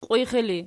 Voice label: "qiwixi", Archi